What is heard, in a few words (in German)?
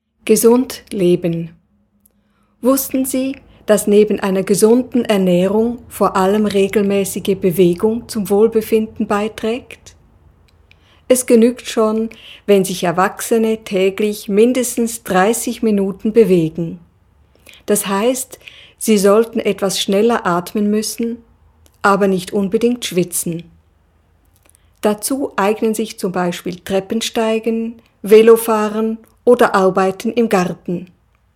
deutsch